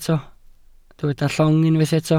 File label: "llong", welsh